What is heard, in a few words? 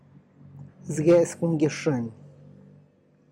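Tlacochahuaya Zapotec; traditional wedding meal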